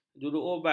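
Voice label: "juruubat", Malay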